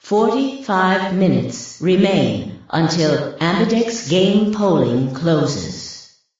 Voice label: announcer vote closing in 5 min

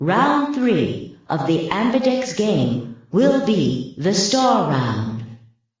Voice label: announcer star round

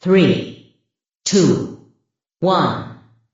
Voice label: announcer countdown 3 2 1

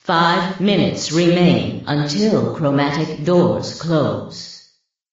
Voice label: announcer closing in 5 min

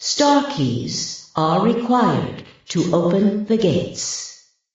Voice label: announcer star keys

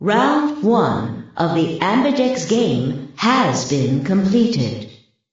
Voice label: announcer round 3 completed

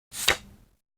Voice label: card smug; retinz

